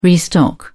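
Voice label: "restock", English, British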